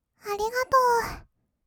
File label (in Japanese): ありがとう, kawaii voice; ASMR; 色々なワンショット